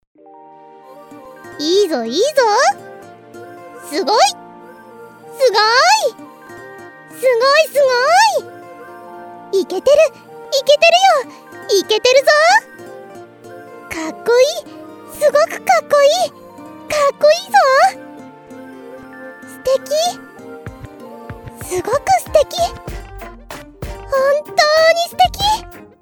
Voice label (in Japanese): 応援ボイスショート別BGM版